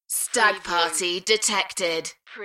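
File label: UK female, DJ vocals; beanstalk-audio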